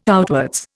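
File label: "outwards", Indian English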